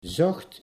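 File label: "zocht", Dutch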